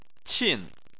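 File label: "qin", Chinese, tone 4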